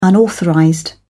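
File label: "unauthorized", British English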